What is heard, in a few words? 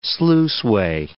"sluiceway", english